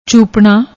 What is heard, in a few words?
"choopna", Punjabi; ਚੂਪਣਾ